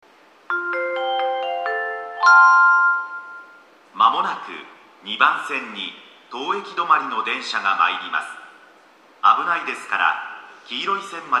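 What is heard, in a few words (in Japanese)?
駅放送, 2番線 （回送） 接近; toyo-katsutadai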